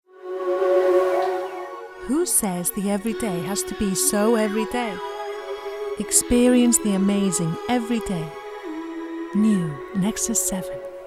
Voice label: female Icelandic English with Icelandic accent compilation